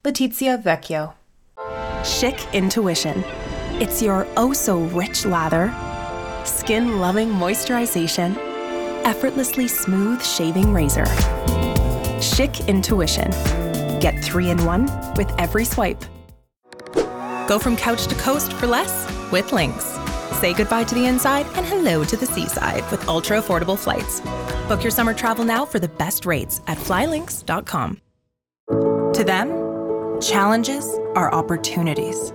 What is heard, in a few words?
Commercial